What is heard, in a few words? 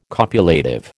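"copulative", American English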